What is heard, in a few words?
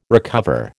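"recover", American English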